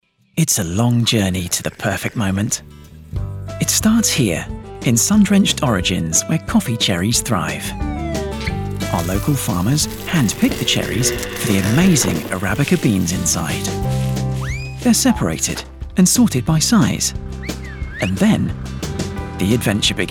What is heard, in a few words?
explainer video young UK male voice over; Emmi Caffè Latte One Bean Around the World